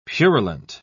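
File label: "purulent", British English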